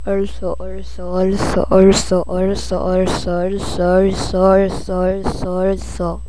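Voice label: "also", spoken word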